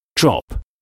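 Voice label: "drop", English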